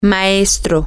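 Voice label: teacher, Spanish, female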